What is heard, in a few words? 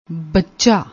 "bacha", Punjabi